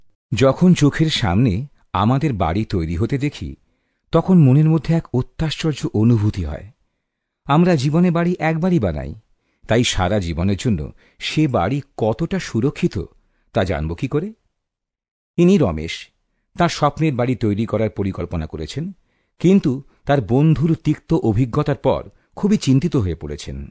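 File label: Bengali narration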